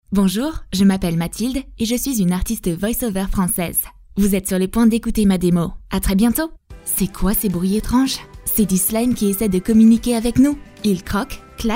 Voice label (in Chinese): 法语女声